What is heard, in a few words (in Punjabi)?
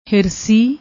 "hirsi", Punjabi; ਹਿਰਸੀ